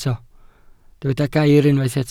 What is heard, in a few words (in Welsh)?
"gair", Welsh